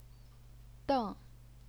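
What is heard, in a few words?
"deng", fourth tone, Chinese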